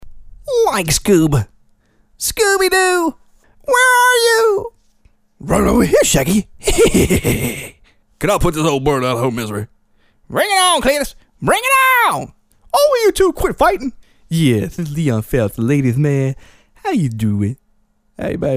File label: impersonations